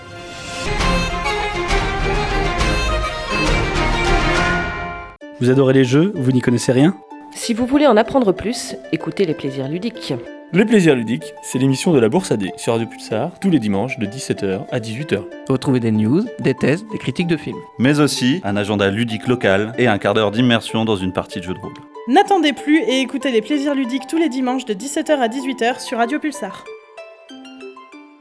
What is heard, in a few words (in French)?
Spot Pub